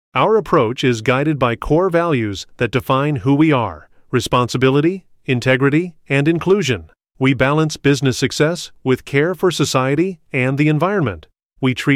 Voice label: Male